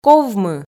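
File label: "ковмы", Komi, female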